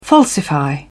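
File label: "falsify", English, British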